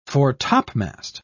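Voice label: "fore-topmast", en, uk British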